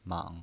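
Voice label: "mang", pinyin voice, Mandarin Chinese, third tone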